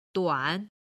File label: "duan3", Chinese; HSK3級